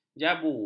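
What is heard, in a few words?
"jabu", Malay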